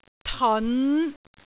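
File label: "teon5", Cantonese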